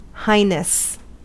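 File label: "highness", American English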